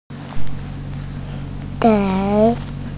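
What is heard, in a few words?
"zei2", Mandarin Chinese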